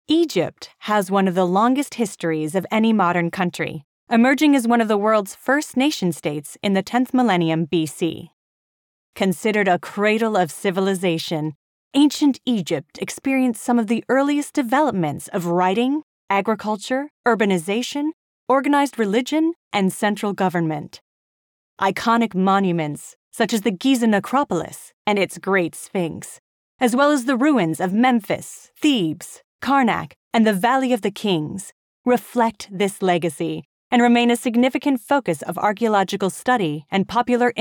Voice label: US VO US neutral